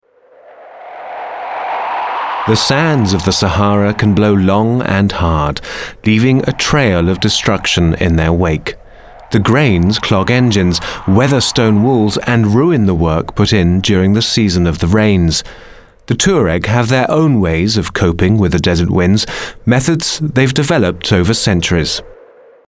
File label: Documentary; kartei-sounds